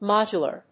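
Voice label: "modular", English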